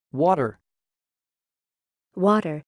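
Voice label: Water American pronunciation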